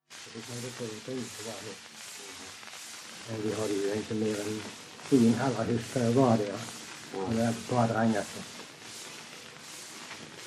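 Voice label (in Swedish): Öljehult Blekinge, dialekter; Folkminnen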